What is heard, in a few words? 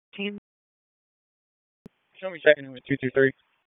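Police Northeast District Dispatch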